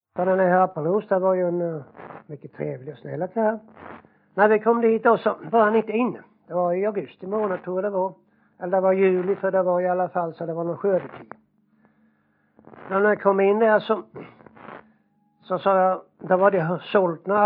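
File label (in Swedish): Jämshög Blekinge, svenska sagor dialekter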